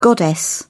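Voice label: "goddess", British English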